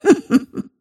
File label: happy; snapfire